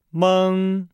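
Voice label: "meng", Chinese; Nihao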